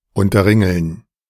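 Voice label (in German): "unterringeln", German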